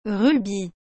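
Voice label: "rubis", French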